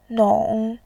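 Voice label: "nong", Mandarin, tone 3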